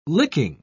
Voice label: "licking", pronounce